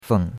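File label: "feng", Chinese, third tone; Cours-de-Chinois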